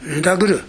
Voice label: "nudaguru", minamiyamagata